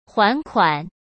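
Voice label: "还款", Mandarin Chinese; chinese flashcards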